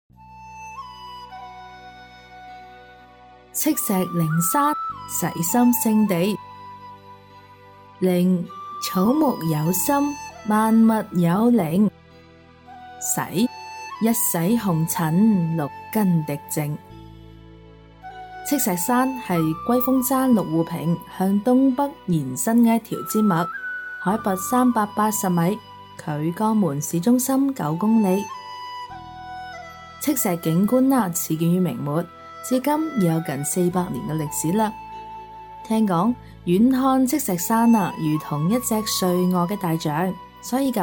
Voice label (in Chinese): 专题解说景区解说